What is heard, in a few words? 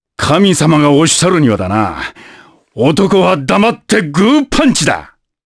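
vox dialogue, voices, Japanese; kingsraid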